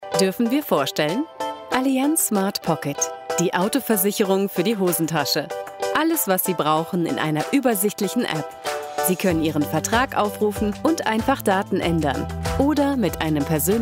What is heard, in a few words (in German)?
DE Internet Spot; Allianz